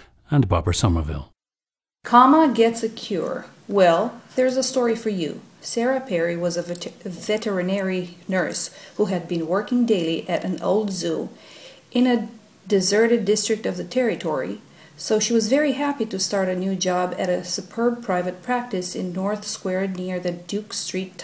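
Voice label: romania, International Dialects of English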